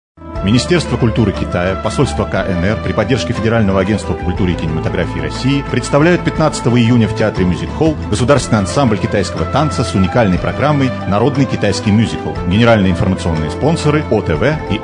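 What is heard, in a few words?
Russian, male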